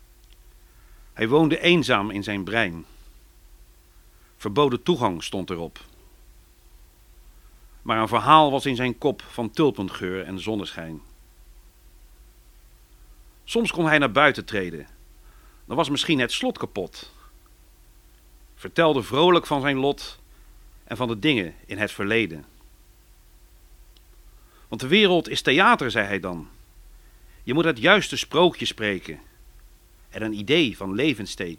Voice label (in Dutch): oude acteur